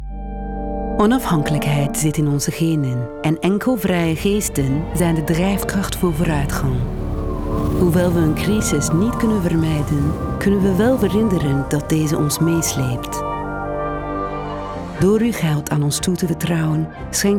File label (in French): Flamand; Carmignac